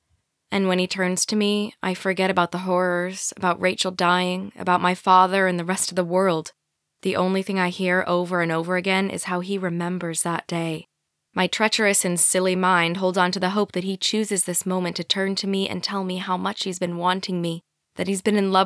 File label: audiobook; a little bit of romance